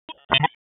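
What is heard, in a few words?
Police Spec Ops Tac, radio; Raleigh; p25; wake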